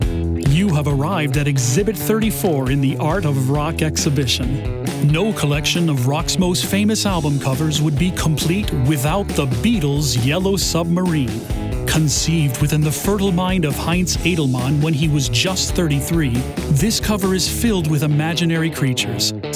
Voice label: voice over actor uplifting